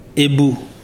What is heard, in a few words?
"termite", Ibibio